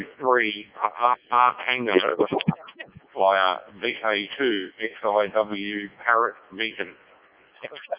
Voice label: decoded speech, 7177KHz